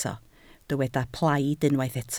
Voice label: "plaid", Welsh